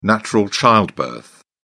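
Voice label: "natural childbirth", British English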